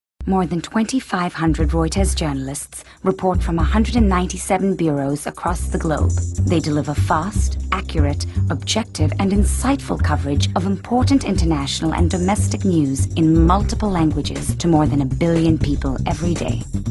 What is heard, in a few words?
english british american indian accents, english female voices